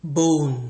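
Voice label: "bone", Nigerian Pidgin, male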